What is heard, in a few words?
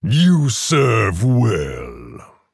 Male Orc Thanks